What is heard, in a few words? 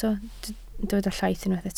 "llaith", Welsh